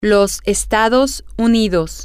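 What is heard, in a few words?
female, spanish; the United States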